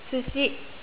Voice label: "sushi", teacher